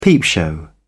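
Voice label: "peepshow", English, British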